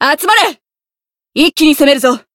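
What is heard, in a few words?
variable chant, Japanese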